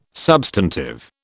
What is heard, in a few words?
"substantive", British